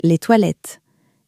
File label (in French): "toilettes", French